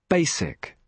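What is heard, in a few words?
"basic", British English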